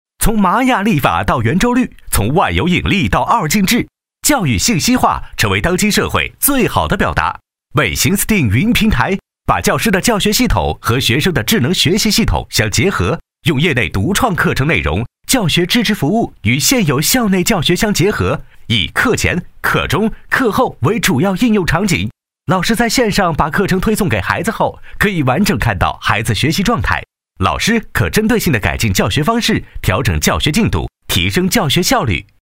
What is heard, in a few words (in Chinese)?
男 飞碟说; 美行steam云平台